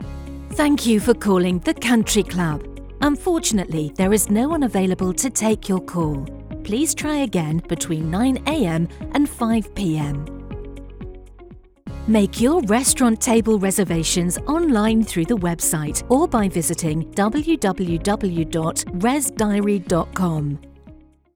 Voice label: IVR Prompt

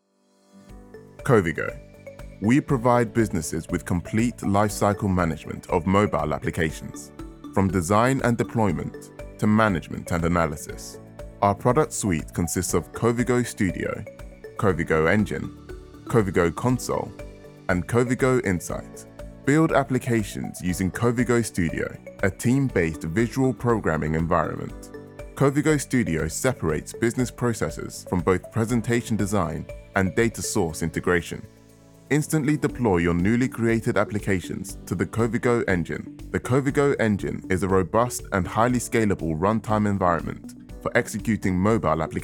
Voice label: Narration Demo

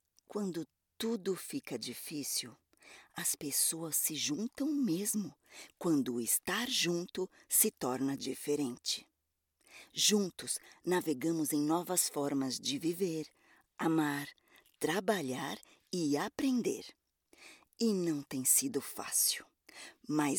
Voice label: Brand Anthem

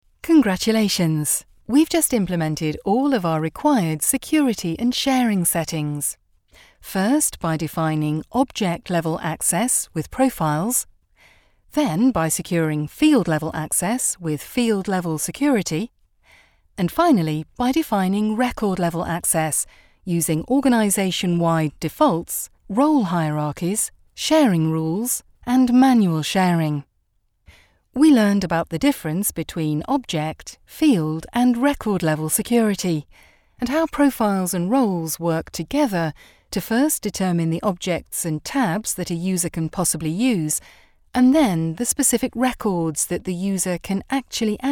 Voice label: Elearning reel

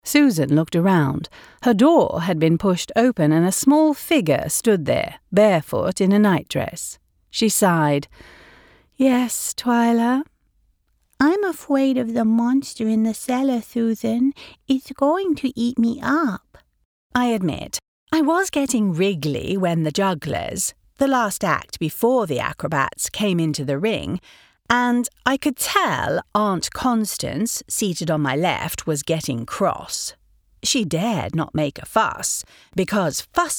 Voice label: English Narration

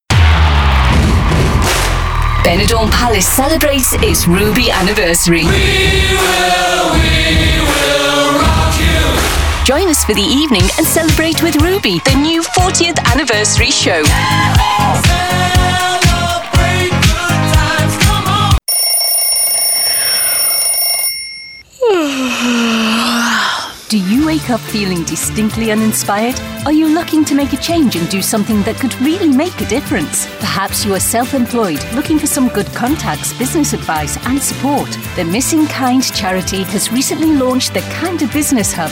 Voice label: British Female Voice Over and Actor Radio Adverts

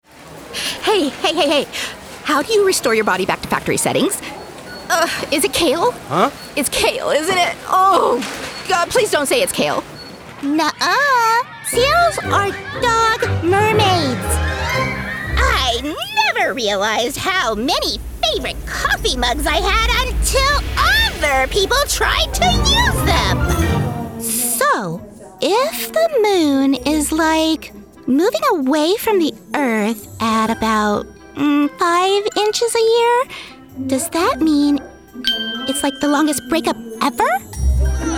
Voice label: Animation

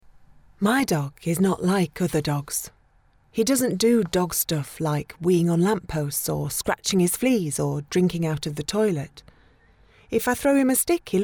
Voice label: Childrens audio book

